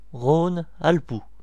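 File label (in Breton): "rhone alpes", Breton; france region; gcompris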